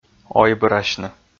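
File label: "ойбырасьны", Komi, male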